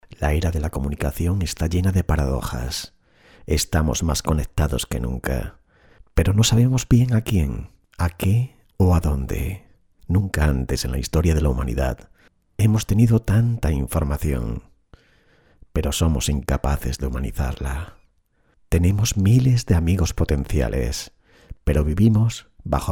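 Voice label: locutor spanish voiceover, male; Spanisch Sprecher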